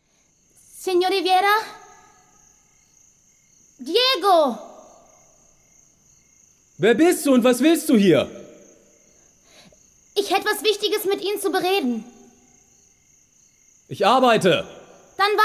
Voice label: filmsynchronisation

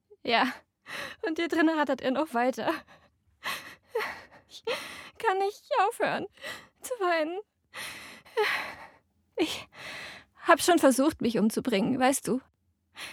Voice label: Synchron emotional; Muttersprache Deutsch

